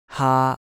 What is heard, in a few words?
"haa1", cantonese